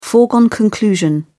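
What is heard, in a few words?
"foregone conclusion", British English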